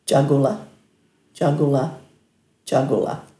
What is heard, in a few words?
"djagula", Dhurga